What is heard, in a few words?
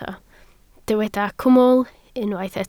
"cwmwl", Welsh